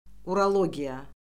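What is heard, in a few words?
"urologiya", Azerbaijani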